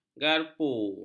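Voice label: "garpu", Malay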